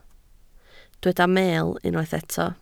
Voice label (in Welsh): "meel", Welsh